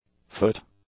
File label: "foot", English; nouns